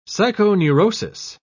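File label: "psychoneurosis", British English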